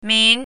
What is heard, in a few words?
"min2", Chinese pinyin